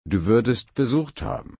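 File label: "besuchen", German, slow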